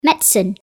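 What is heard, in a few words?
"medicine", English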